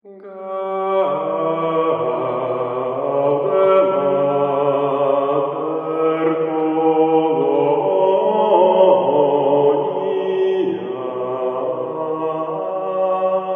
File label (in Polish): kosciol glos